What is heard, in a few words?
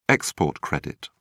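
"export credit", British English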